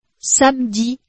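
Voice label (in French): "samedi", French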